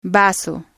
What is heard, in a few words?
"vaso", Spanish